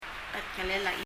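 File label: "cheldengelel" Palauan; finger; 身体の各部位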